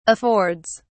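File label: "affords", English, uk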